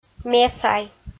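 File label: "месай", Komi, female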